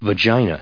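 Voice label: "vagina", US English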